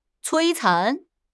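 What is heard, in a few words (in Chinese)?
"摧残", Mandarin Chinese; HSK6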